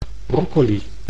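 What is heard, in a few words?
"broccoli", Dutch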